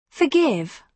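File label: "forgive", British English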